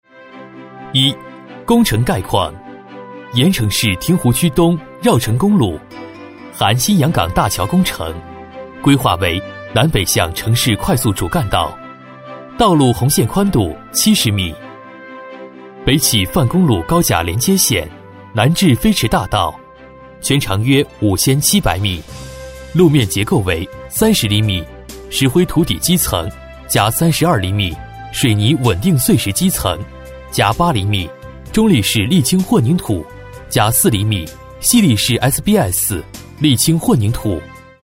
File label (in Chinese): 男 项目介绍 工程解说（温馨庄重感）